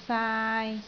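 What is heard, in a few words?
"saai3", Cantonese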